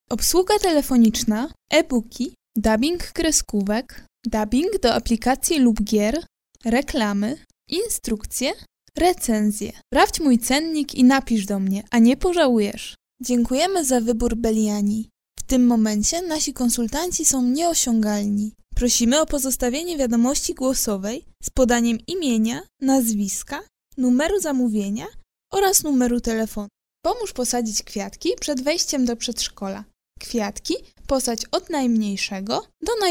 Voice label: Polish